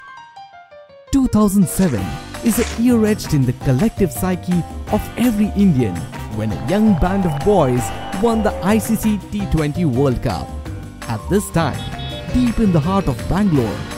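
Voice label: English Corporate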